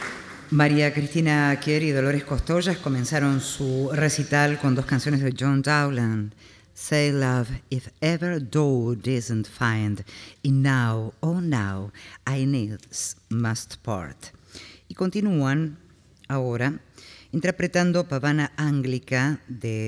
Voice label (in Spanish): locutora